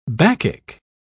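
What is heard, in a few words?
"bacchic", British English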